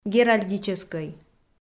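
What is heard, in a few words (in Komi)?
"геральдическӧй", Komi, female; audio dict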